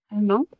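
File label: Voice Acting es, female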